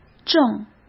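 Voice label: "证", Mandarin Chinese; chinese-flashcards; hsk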